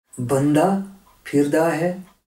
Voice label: punjab pakistan